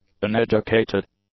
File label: "uneducated", English; adjectives